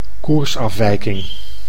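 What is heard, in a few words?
"koersafwijking", Dutch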